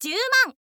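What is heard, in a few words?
"zyuuman", Japanese, girl; voice; info girl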